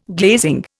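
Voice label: "gleam", Indian; Dictionary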